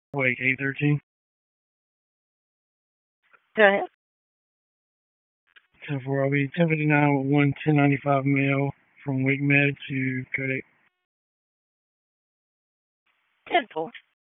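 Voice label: Dispatch, p25; wake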